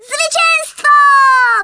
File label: VICTORY, Speech Polish; Worms Armageddon